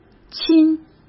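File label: "亲", Mandarin Chinese; hsk; chinese-flashcards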